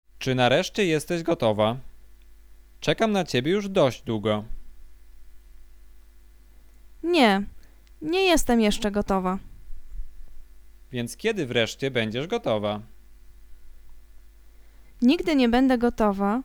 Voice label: conversation; lektorek; firstyear